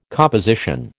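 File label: "composition", American English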